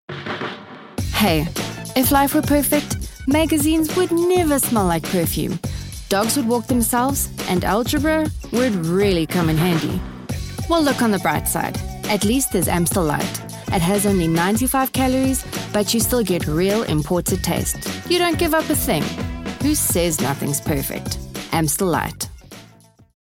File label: english south african promos